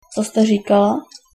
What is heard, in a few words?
what did you say, czech